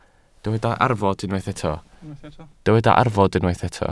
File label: "arfod", Welsh